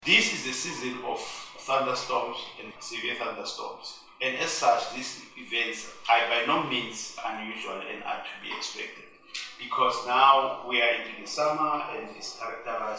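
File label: English; Severe Weather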